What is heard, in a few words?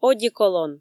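"одеколон", Komi, female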